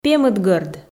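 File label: "пемыдгӧрд", Komi, female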